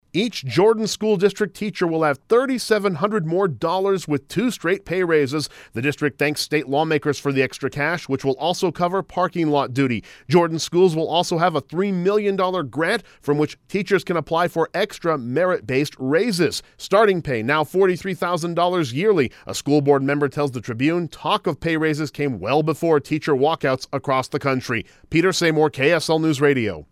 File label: VOICE PSA; JORDAN PAY RAISES